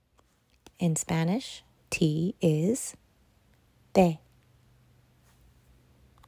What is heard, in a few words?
Spanish